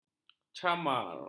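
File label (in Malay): "camar", Malay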